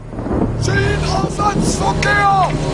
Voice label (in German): "schienenersatzverkehr", German